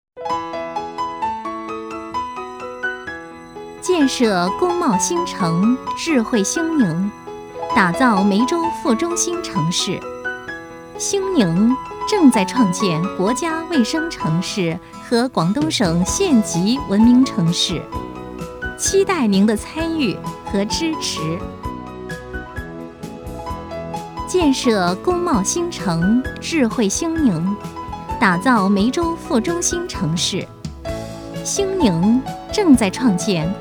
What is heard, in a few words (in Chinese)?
彩铃